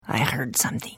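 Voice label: Idle, Khajiit female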